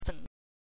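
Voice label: "zeng" Mandarin Chinese, neutral tone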